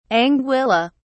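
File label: "anguilla", British English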